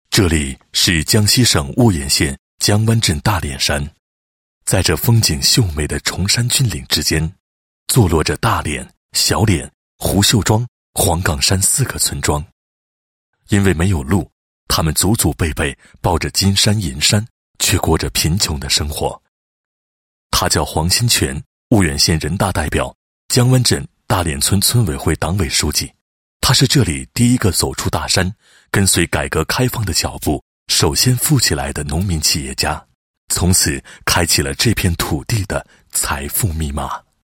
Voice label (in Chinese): 男 人物; 某村党支部书记黄某事迹